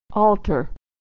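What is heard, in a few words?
"altar", American English; Homophones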